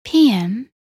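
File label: "pm" English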